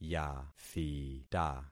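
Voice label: "yathita", concatenated syllables; Affixing experiment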